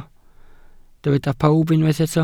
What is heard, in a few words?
"pawb", Welsh